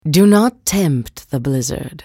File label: attack, en US; Anivia